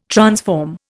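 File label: "transform", Indian English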